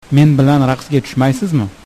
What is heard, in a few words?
dance uzbek